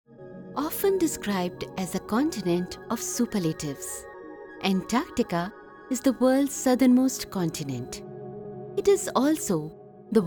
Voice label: english indian documentaries, indian english voice actors